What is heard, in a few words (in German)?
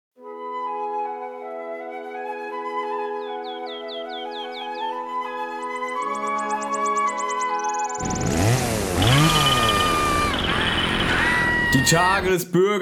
radiospot